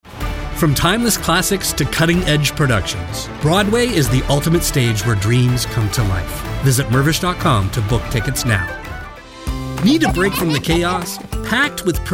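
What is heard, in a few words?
Canadian English commercial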